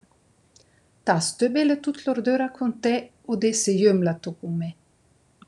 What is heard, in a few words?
Badiotto (St. Martin in Thurn, South Tyrol); Ts tö bele tut lordöra cun te o dessi iö mla tó cun me?; AlpiLinK